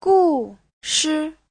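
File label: "gùshi", Chinese; Giáo trình Hán ngữ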